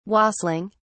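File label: "wassailing", British English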